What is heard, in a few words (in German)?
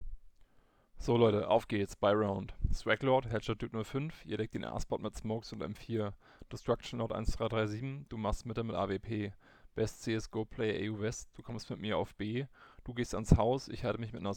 Testaufnahme Gaming Headset Klinke